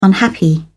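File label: "unhappy", British English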